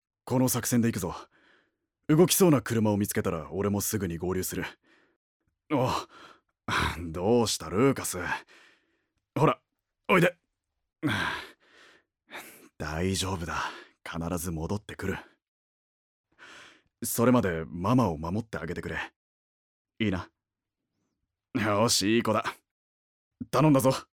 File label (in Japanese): 外画